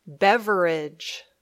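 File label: "beverage", English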